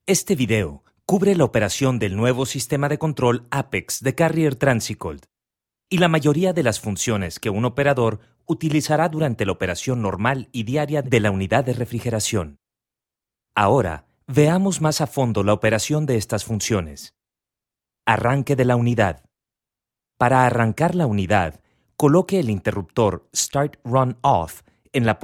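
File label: Spanish elearning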